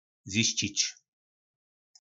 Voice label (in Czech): "Zjiščič", po našimu; Zjistit